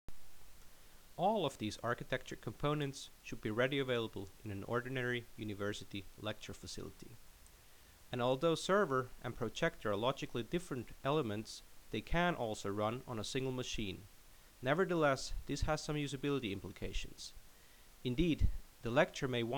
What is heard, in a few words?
Narration